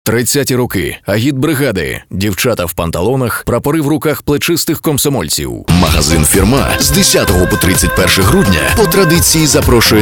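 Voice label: Ukrainian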